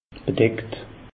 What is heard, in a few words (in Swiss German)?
"bedeckt", alsace; meteo